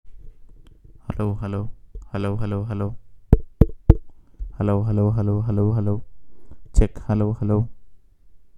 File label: analog mic easy rec, Analog Input Microphone; AM62P